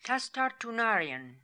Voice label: "Tastartoonarian", pronounciations; Fourth Way; Beelzebub